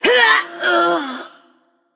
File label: Female Forsaken Death